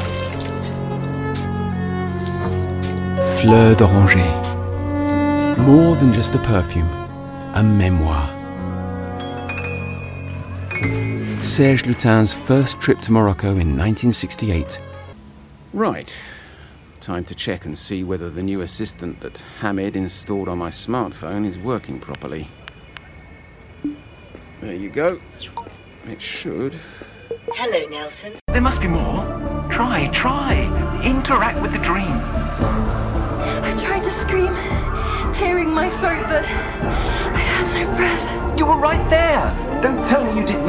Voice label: medley audio fiction

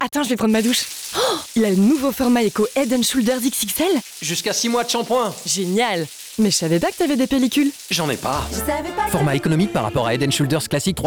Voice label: spot radio; Head shoulders

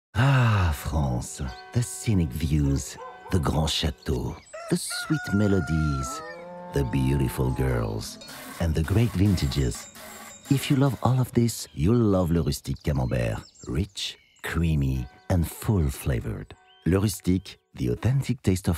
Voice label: UK; Le rustique

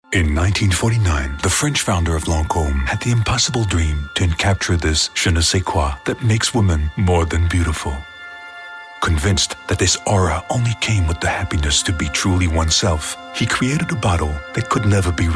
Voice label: US soft narration sensuel voix off voice over; Lancôme

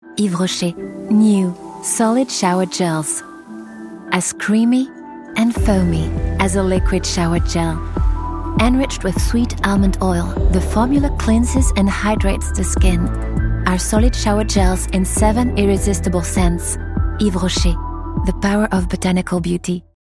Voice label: PUB ENG Showergel; Yves Rocher

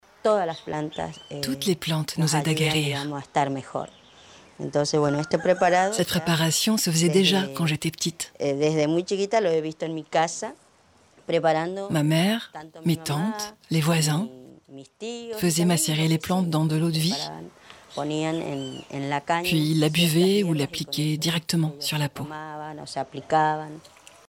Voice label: Voice over Plantes; Ushuaia

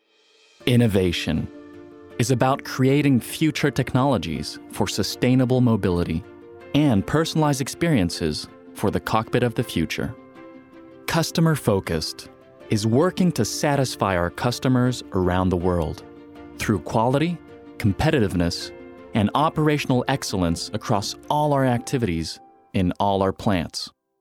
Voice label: Institutionnel US; Faurecia

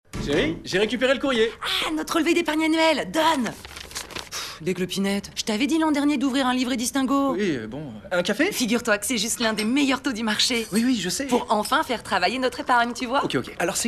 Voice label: Spots RADIO; PSA BANQUE

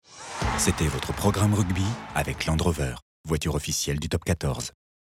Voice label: billboard; landrover

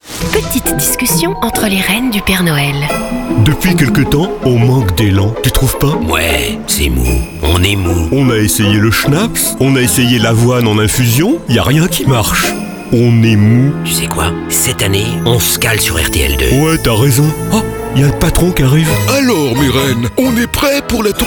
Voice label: Trailer; Rennes de NOEL; RTL2

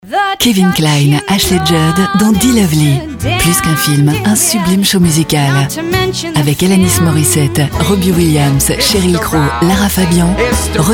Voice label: radio

